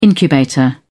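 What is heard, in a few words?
"incubator", English, British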